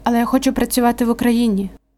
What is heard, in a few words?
dialogue, Ukrainian